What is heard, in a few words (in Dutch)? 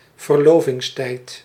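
"verlovingstijd", Dutch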